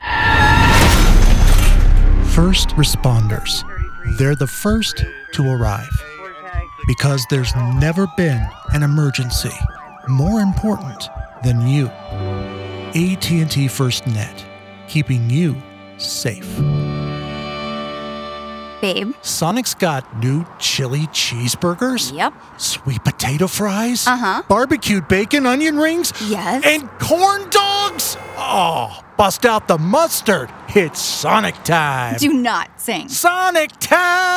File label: commercial